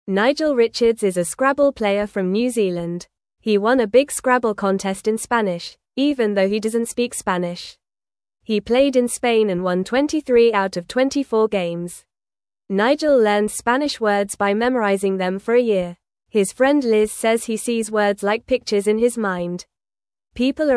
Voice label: English Newsroom Beginner FAST Reading; Nigel Wins Scrabble Contest Without Speaking Spanish

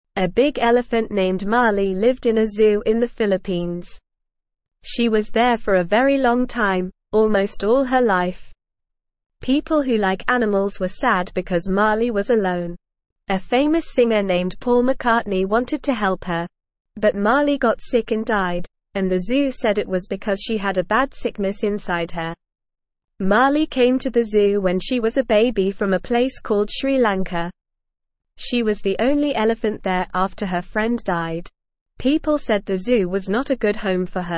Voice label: English Beginner NORMAL Reading; Mali the Elephant A Sad Story of Loneliness; English Newsroom

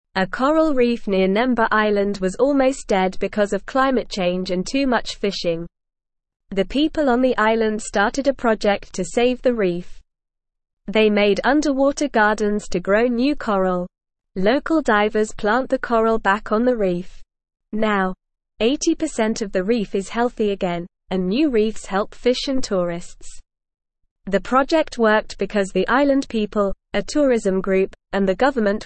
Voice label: English Newsroom Beginner NORMAL Reading; Island People Save Coral Reef with Underwater Gardens

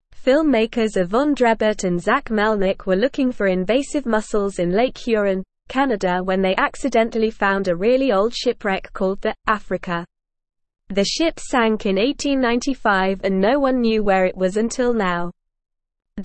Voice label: Upper Intermediate NORMAL Reading, English; English Newsroom

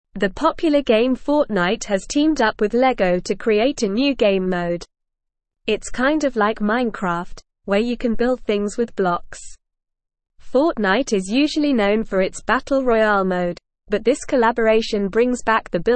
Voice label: Upper Intermediate NORMAL Reading, English; English Newsroom; Fortnite and Lego Partner for Block Building Surv

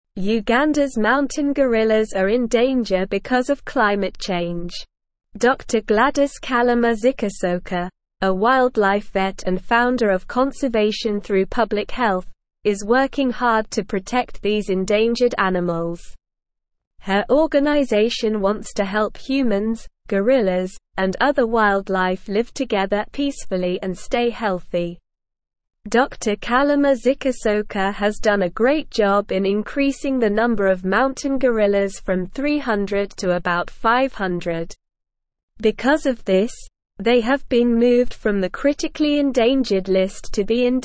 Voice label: English Newsroom Upper Intermediate SLOW Reading; Ugandas Wildlife Vet Saves Mountain Gorillas from C

